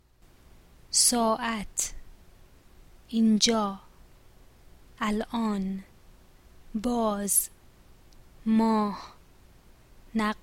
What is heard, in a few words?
pronunciation, Persian